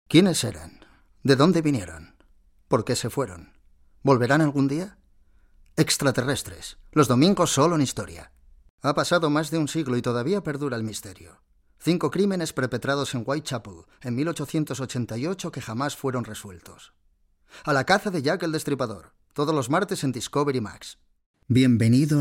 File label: Narrative